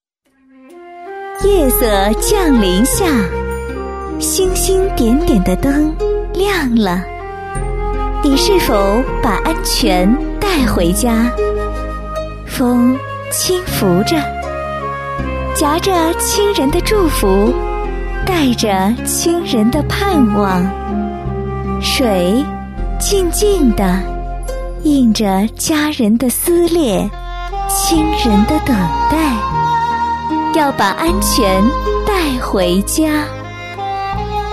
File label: girl poem, Chinese